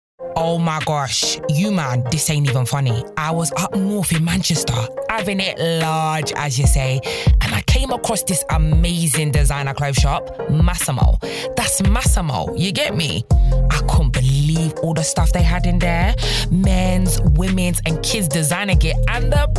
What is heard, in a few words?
Urban Reel